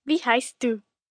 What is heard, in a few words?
German Whats Name, Questions, 1 child, 10 yrs., German